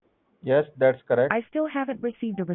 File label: phone address verify